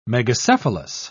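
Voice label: "megacephalous", English, British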